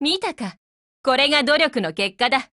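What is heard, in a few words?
WIN Japanese, Voice; GF Alarm; KSVKMod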